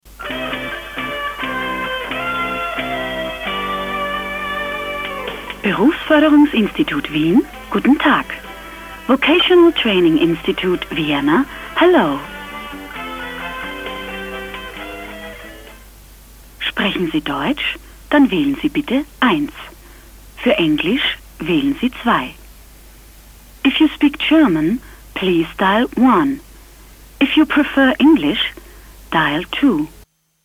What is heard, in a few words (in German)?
Anrufbeantworter